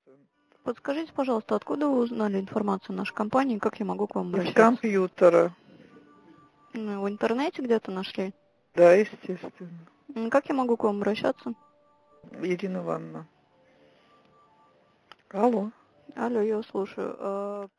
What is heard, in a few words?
not smiling voice